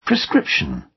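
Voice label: "prescription", British English; Time, history; Thời gian, lịch sử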